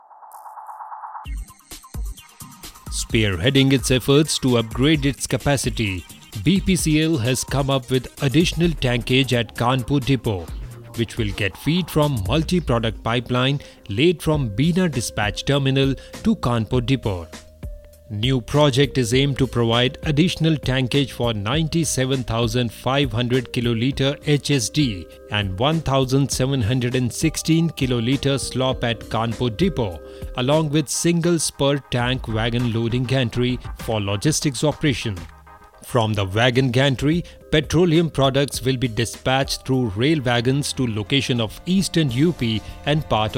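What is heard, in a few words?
corporate english; BPCL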